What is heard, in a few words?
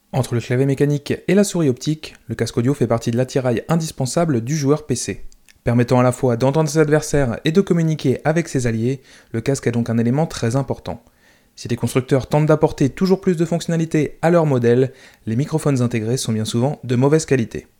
alias usb normalise